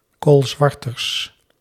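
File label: "koolzwarters", Dutch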